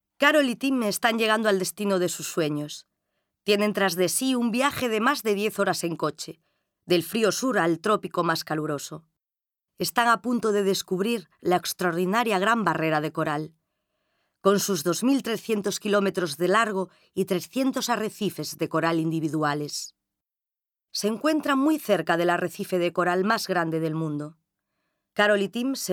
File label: Castilian Spanish; VOICES